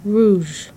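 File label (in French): "rouges" French adjective, feminine plural; Colors and Adjectives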